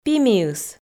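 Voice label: "пимиыс", Komi, female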